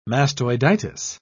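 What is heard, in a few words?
"mastoiditis", British English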